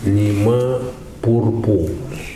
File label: "nimwa purupuo", Nafe